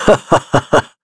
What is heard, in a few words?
Shakmeh Vox Human Happy, voices heroes en; kingsraid-audio